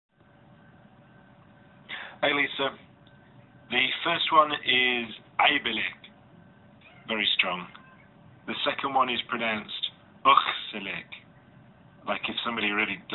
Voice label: Voice recording, Arabic curses